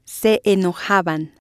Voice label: "se enojaban", Spanish

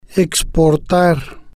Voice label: "exportar", spanish

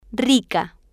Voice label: "rica", Spanish

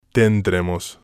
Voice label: "tendremos", spanish

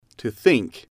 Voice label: "to think", English

